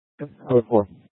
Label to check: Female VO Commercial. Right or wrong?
Wrong